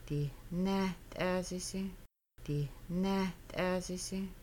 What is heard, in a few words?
You might fry chicken, Kaska; Making Bannock